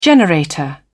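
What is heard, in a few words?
"generator", British English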